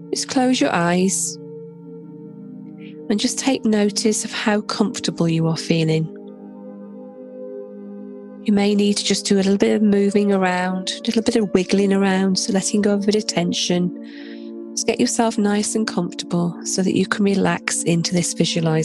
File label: Meditation audio with relaxing music